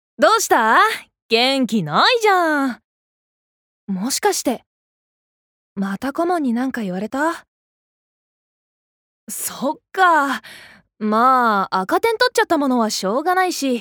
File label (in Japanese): アニメ